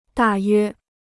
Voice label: "大约", Chinese

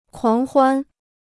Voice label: "狂欢", Chinese

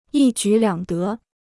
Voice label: "一举两得", Chinese

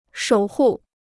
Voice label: "守护", Chinese

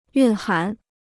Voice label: "蕴涵", Chinese

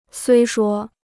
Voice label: "虽说", Chinese

